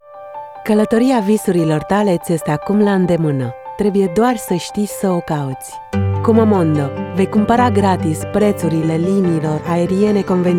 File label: romanian corporate videos